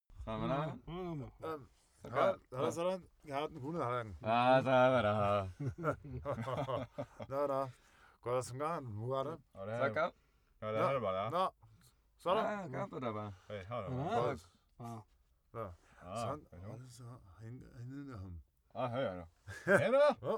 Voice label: talk calm close laugh